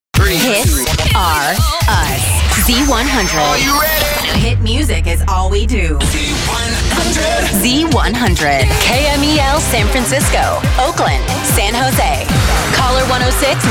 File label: radio imaging women